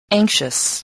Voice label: "anxious", spelling